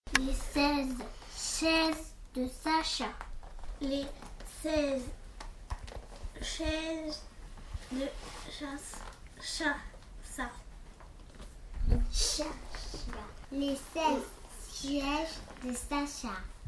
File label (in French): Articulation 4 5 ans